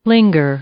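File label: "linger", English, female; verb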